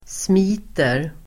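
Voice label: "smiter", Swedish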